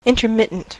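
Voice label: "intermittent", US English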